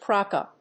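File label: "crack a", English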